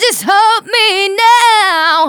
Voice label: Phrase Female; Vengeance Samples; Phrases

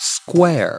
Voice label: LoFi Words, LoFi Vocals

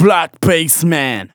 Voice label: Phrase Male Rap; Vengeance Samples

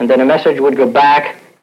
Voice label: LoFi Phrases, LoFi Vocals; Vengeance Samples

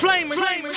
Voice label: FLAMERZZ, Hip-Hop Vocals Samples

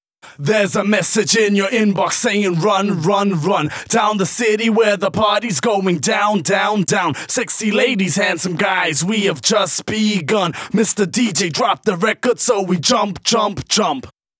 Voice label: Rap Vocals DRY, 140 BPM; Vengeance Samples; Vocal-Hook Kits; Song Kit

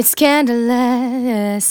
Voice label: phrase female

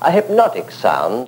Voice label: LoFi Phrases, LoFi Vocals; Vengeance Samples

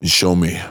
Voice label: Phrase Pitched; Vengeance Samples

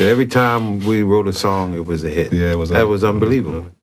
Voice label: LoFi Phrases, LoFi Vocals; Vengeance Samples

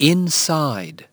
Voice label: LoFi Words, LoFi Vocals

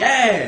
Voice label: "YEAHHH", Hip-Hop Vocals Samples

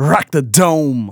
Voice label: phrase male rap; Vengeance Samples

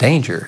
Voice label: LoFi Words, LoFi Vocals

